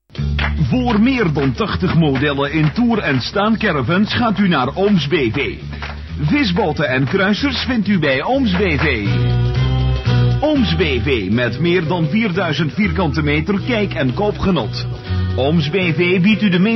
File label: commercial